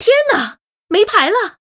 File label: Female Murloc No Cards; zh